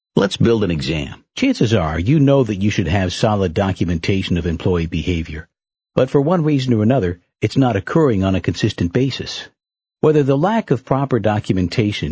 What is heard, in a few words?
American English, M